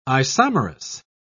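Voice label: "isomerous", British English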